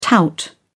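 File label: "tout", British English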